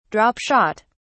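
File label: "drop shot", British English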